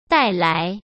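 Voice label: "带来", Mandarin Chinese; chinese-flashcards; hsk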